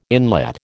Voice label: "inlet", American